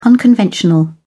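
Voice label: "unconventional", British English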